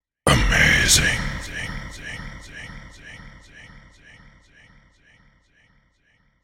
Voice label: "Amazing" Spoken, The word ‘Amazing’ spoken in deep voice.; Exclamations